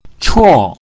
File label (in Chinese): "cuo4", wrong